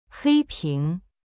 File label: "hei1ping2", Chinese; cyberchinese